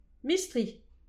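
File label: "mistri", Breton; petit precis de prononciation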